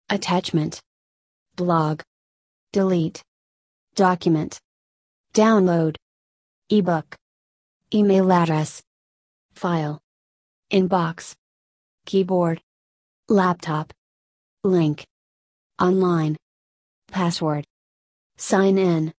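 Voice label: American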